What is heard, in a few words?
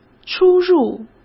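"出入", Mandarin Chinese; chinese flashcards; hsk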